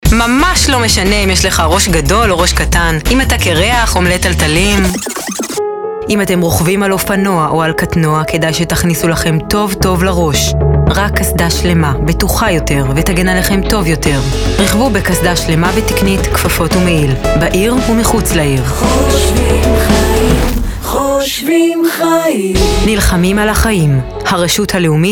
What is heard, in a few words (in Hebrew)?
פרומו